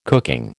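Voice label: "cooking", American English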